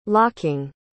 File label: "locking", pronounce